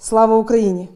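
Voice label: "Слава Україні", Ukrainian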